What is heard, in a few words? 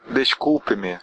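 sorry, Brazilian Portuguese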